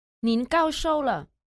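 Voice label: "nin gao shou le", Chinese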